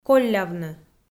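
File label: "коллявны", Komi, female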